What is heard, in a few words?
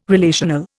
"relational", Indian